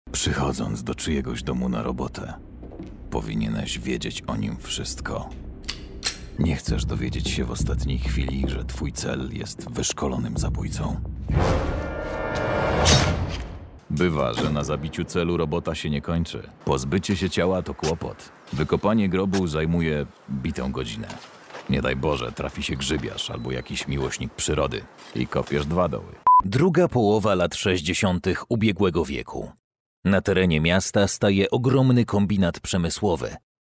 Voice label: Polish